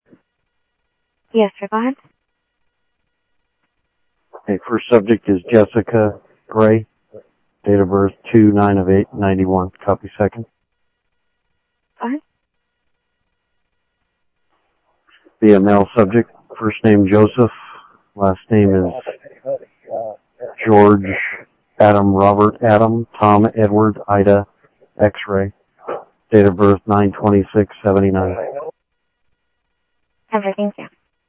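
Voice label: Lakes Sheriff Lake Patrol, scanner audio trunk; MCSO Lakes 1; ThompsonPeakScot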